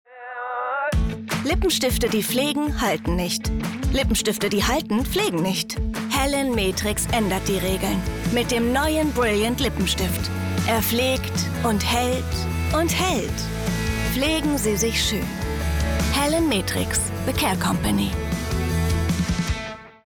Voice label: Sprecherdemos Kosmetik

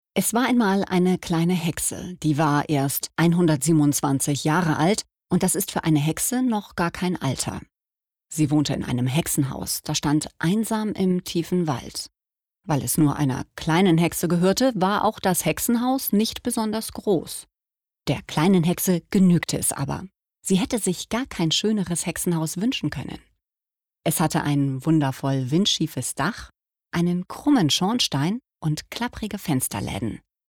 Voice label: Hoerbuch

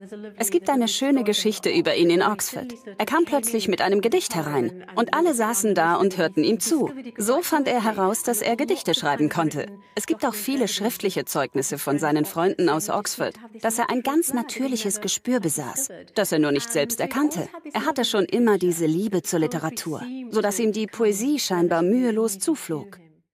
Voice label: Voiceover Doku lockersympathisch; Arte; Fantasy Morries

